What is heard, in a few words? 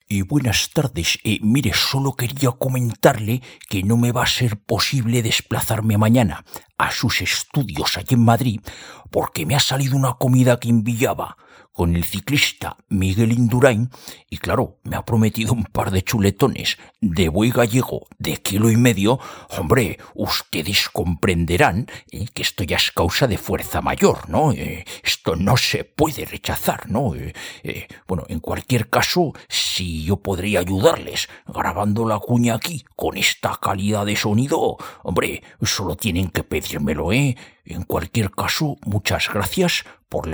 locutor imitador anuncio radio; locutor imitador para anuncio; Voz de Rajoy